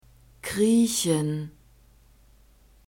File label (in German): "kriechen", German; phonetic script grouped consonants